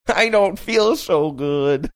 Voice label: saying i dont feel so good funny

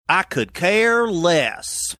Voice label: I could care less southern drawl

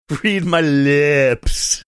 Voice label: funny; read me lips

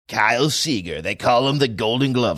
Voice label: sports radio promo voice

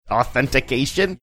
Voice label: saying authentication funny

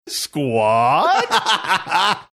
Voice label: saying squad funny

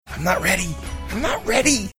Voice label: whispering im not ready

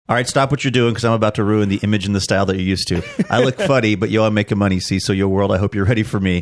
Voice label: reciting lyrics

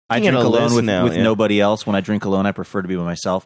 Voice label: reciting lyrics

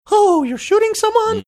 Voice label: saying oh youre shooting someone funny

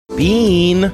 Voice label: saying beans sing songy

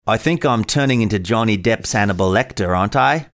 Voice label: saying weak australian accent; i think i'm turning into johnny depp's hannibal lecter

